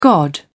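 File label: "god", British English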